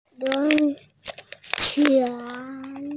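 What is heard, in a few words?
"lun2chuan2", Mandarin Chinese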